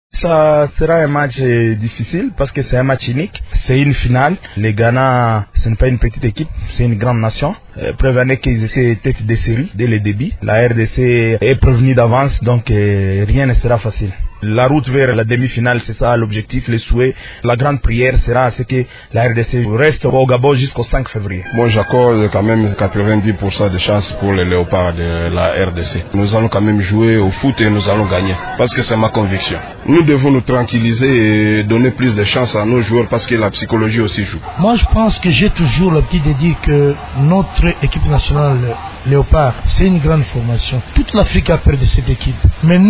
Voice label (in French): vox pop; quelques heures avant match rdc ghana